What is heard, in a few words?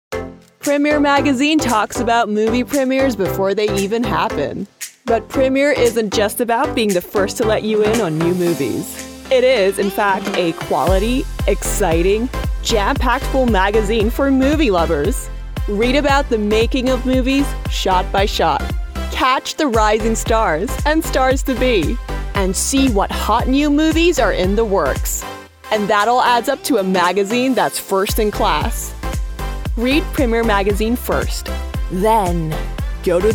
Ad; PremierMagazine